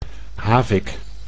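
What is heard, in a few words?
"havik", Dutch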